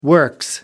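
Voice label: "works", British English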